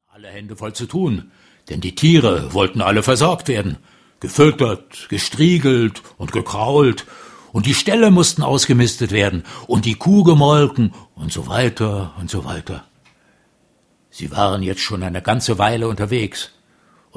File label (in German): Erzaehler